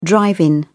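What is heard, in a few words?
"drive-in", British English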